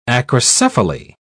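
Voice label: "acrocephaly", British English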